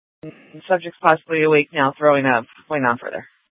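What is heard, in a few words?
police dispatch, Scottsdale PD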